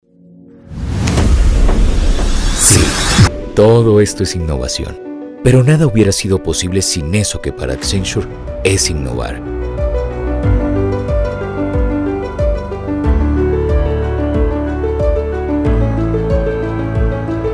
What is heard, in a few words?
Corporate Videos Narration and Documentaries